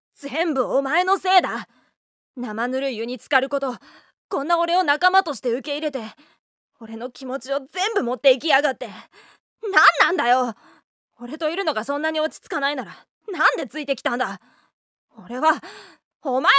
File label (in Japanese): 子供っぽく, 男の子; 同じ台詞で２パターン